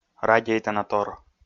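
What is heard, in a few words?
"радейтанатор", Komi, male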